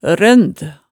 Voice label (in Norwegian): "rønd", hemsedalsmaalet